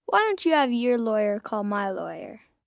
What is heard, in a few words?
Your Lawyer Call My, Adult Phrase, 1 child, 10 yrs, Why don't you have your lawyer call my lawyer; Talking Sound Effects; VoiceBox; Twistedtracks